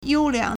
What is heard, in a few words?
"yōuliáng", Chinese; fine; good; 优良 (優良)